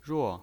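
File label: "ruo", Mandarin Chinese pinyin voice, fourth tone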